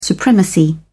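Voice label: "supremacy", British English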